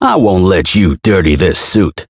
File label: Voice variable chant; hardy